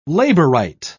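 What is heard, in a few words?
"labourite", British English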